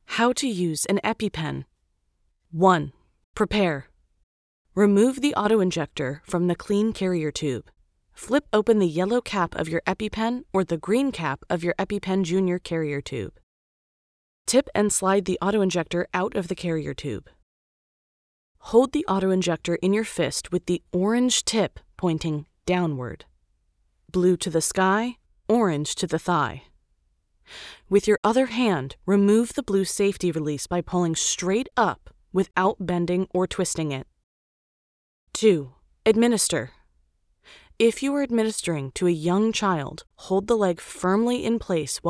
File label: Explainer